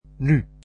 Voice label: "now", Dutch